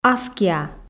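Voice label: "аскиа", Komi, female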